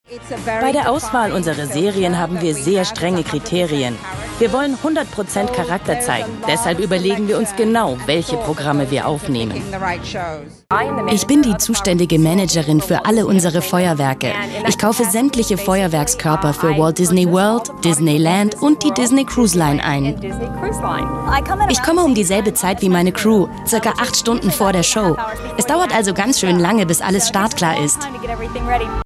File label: Voiceover Mix